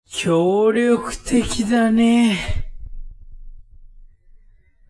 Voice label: man